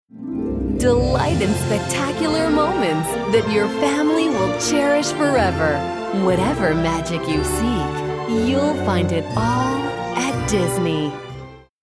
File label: Kid Friendly